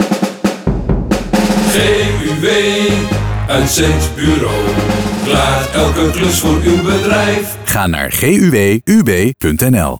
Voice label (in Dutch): Uitzendbureau commercial